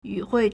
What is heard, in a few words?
"yu4hui4", Chinese